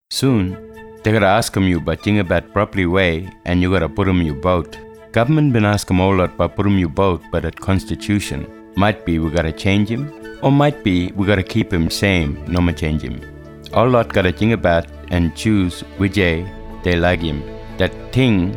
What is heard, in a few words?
East Side Kriol - A referendum will be held this year; first nations